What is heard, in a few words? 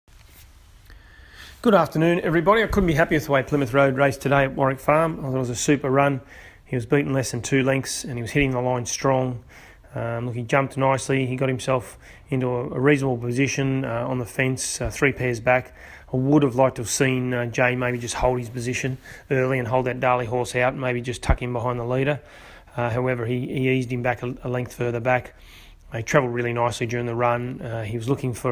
voice note; Plymouth Road